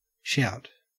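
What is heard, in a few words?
"shout", Australian English; pronunciations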